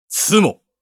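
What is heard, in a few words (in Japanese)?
"ツモ", Japanese; ボードゲームやカジノ、麻雀の効果音です。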